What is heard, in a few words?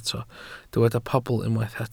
"pobl", Welsh